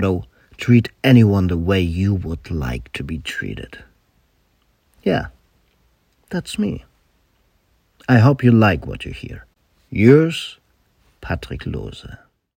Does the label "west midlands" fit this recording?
No